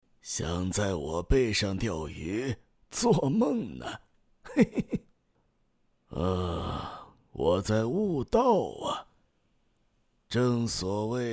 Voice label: 老年男

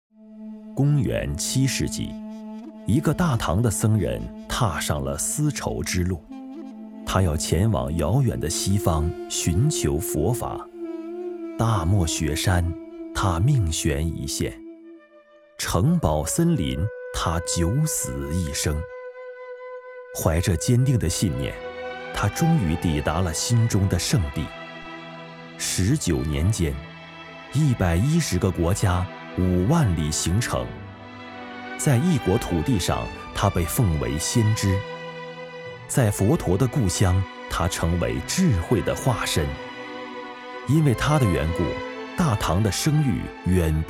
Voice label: 历史纪录片

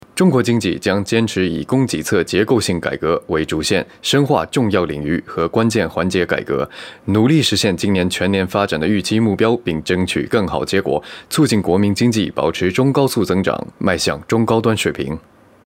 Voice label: 新闻类

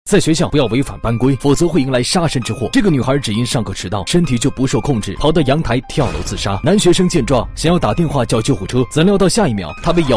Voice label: 抖音解说毒舌

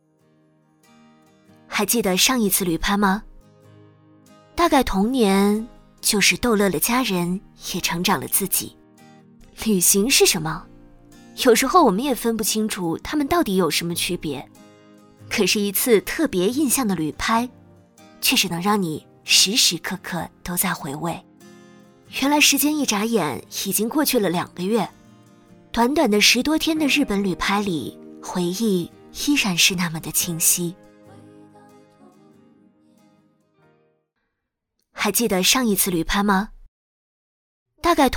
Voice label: 文艺旅拍口语独白青春回忆风

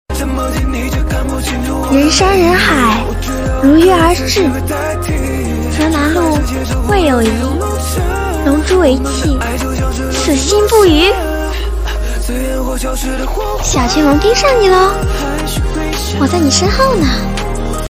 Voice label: 少女音; 西施

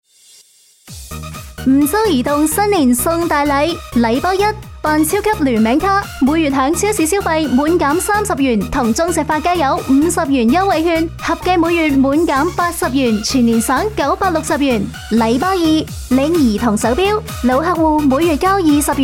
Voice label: 粤语促销; 梧州移动

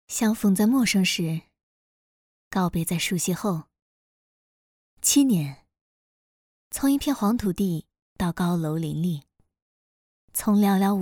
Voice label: 宣传片温婉

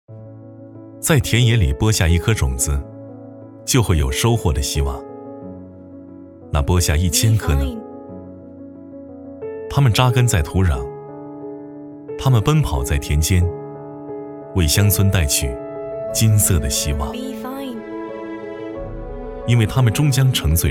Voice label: 走心独白; 中国农商银行

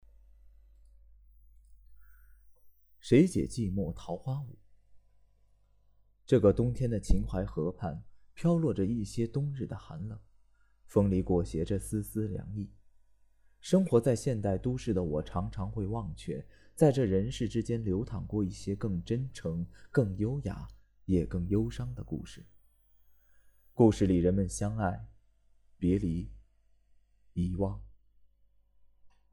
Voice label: 朗读

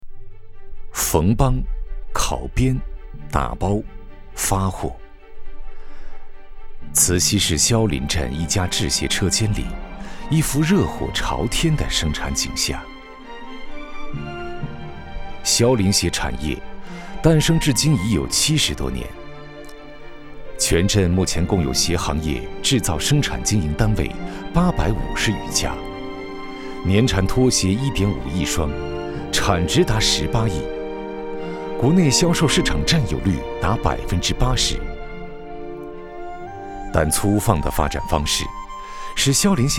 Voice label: 讲述; 逍林镇鞋产业

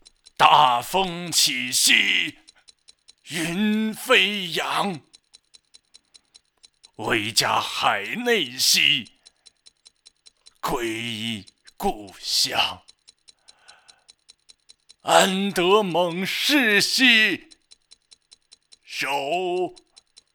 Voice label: 老年人音色; 60以上

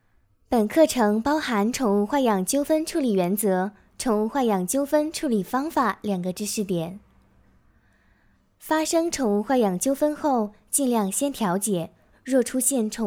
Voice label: 视频微课; 宠物管理视频微课

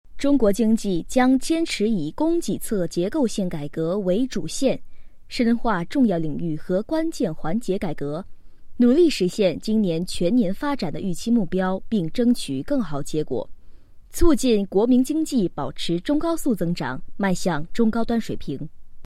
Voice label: 新闻类

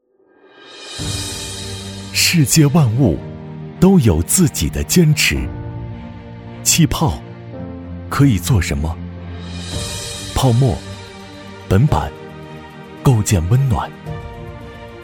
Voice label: 旁白; 鲁众建筑

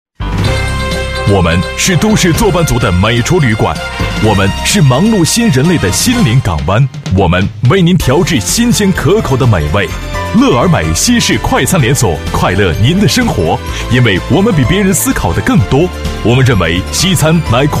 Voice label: 广告大气; 乐而美快餐连锁

